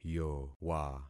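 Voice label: "yowa", concatenated syllables; Affixing experiment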